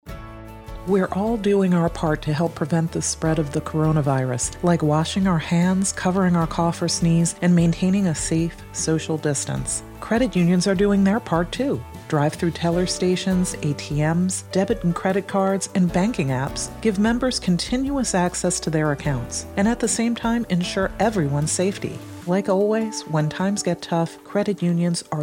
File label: Female Vers spot